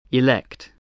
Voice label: "elect", English

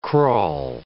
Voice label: "crawl", English

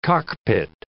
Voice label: "cockpit", English

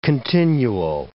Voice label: "continuo", English

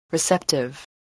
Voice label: "receptive", english

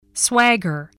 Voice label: "swagger", English

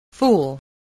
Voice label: "fool", English voice, spelling

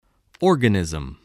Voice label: "organism", English voice

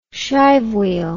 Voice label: "shivewheel", words

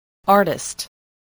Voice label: "artist", English

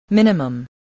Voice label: "minimum", voice, spelling

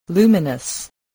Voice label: "luminous", spelling voice

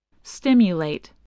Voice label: "stimulate", voice

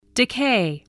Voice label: "decay", English

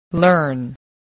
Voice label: "learn", English

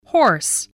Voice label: "hoarse", English, spelling

